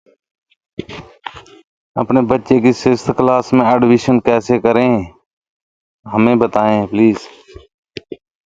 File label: voice message